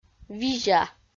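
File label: "визя", Komi, female; audio dict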